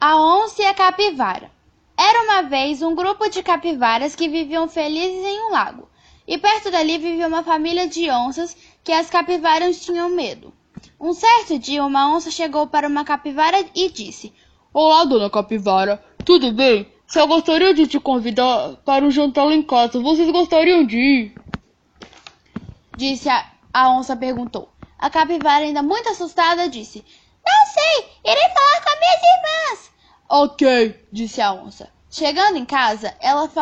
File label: audiobook